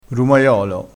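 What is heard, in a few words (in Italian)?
"rumaiolo", dialetto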